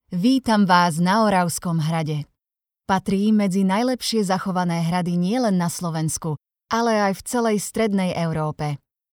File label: slovak audio guides